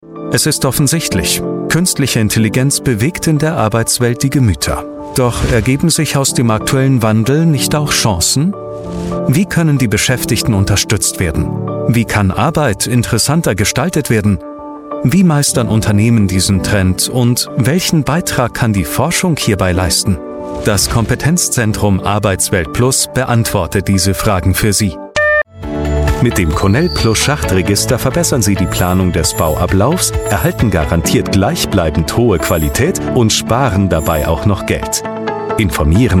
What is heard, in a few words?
german voice over male narrator